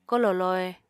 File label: "kolò lohe", Apatani